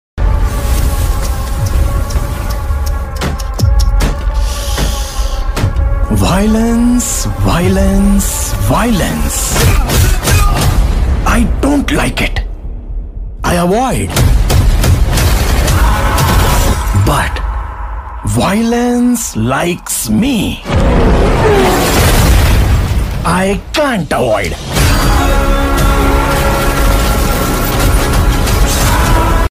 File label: Dialogue Ringtone; KGF 2